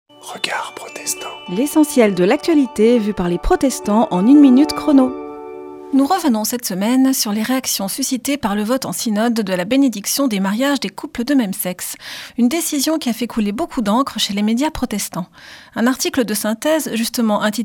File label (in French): Spot radio; Regards protestants